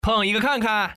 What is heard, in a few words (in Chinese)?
peng, putonghua, man; mahjong paohuzi